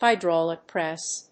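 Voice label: "HYDRAULIC PRESS", English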